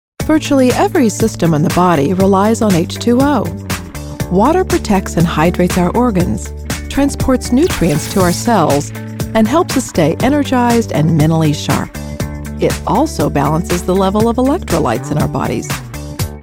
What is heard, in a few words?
Narration Water